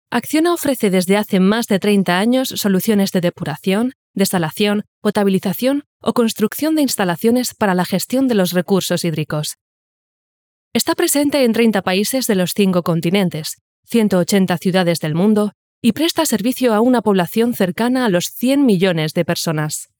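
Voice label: Spanish, female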